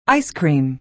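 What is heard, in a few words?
"ice cream", American English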